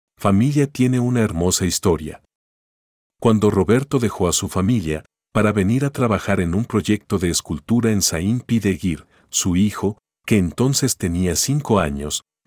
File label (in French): espagnol